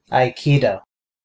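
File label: "aikido" US English; pronunciations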